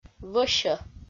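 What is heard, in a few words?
"вӧсьӧ", Komi, female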